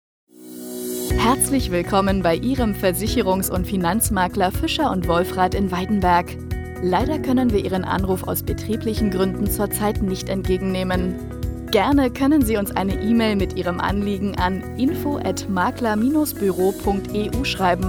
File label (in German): Anrufbeantworter Ansage; Fischer und Wolfrath